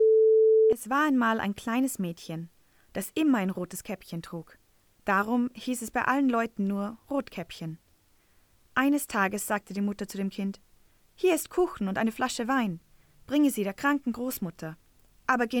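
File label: Austrian German Audiobook; GoLocalise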